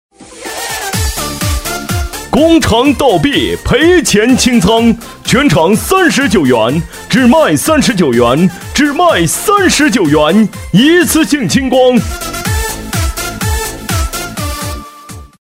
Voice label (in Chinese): 促销